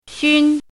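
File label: "xun1", Mandarin pinyin syllable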